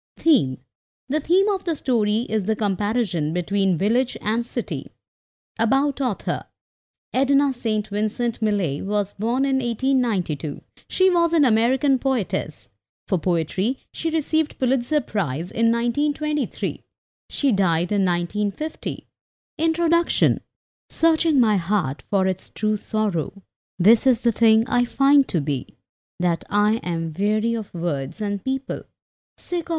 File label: Female